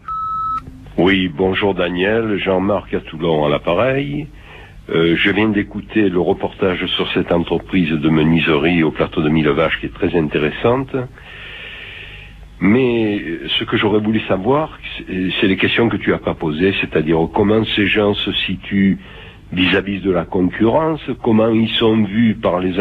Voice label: Ambiance Bois répondeur

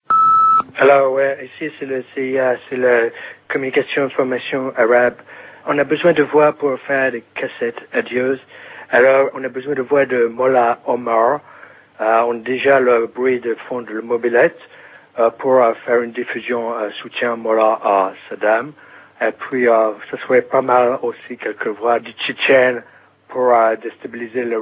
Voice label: Irak CIA repondeur

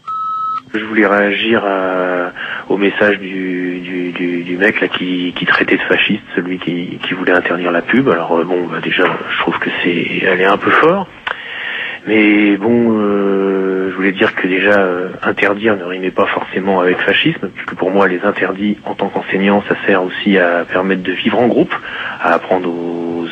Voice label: Pub répondeur; France Inter

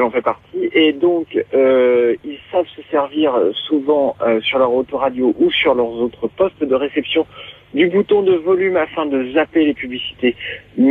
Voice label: Pubs France Inter, repondeur

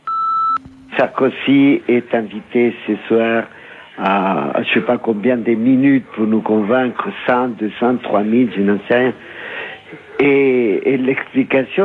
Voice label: répondeur; Nicolas Sarkosy

